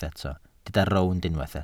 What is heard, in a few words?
"rownd", Welsh